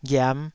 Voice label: "ghiam5", Taiwanese syllable